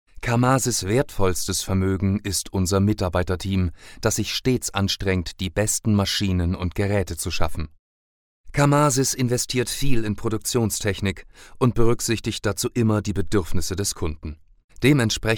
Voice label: locutores alemanes voiceovers german voiceovers